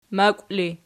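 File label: "makw" ergative, Archi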